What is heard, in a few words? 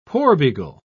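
"porbeagle", British English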